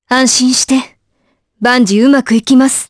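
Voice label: vox dialogue, voices, Japanese; Priscilla; kingsraid audio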